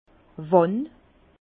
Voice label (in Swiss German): "wann", Bas Rhin; vin; quand